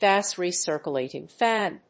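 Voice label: "gas recirculating fan", English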